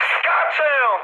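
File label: bailout, mood high, Polish speech; War Thunder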